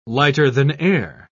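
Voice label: "lighter-than-air", English, British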